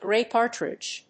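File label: "Grey partridge", English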